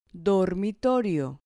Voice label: "dormitorio", Spanish